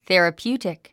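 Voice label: "therapeutic", English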